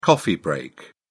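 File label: "coffee break", English, British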